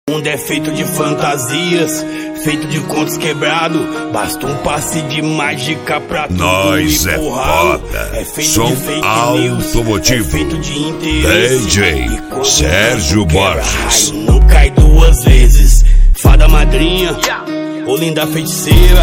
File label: rap; som automotivo